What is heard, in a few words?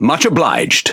Pirate Speech Quick Commands Thank You; World Of Warships